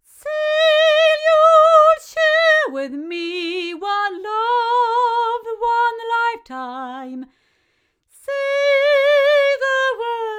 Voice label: Sontronics Sigma female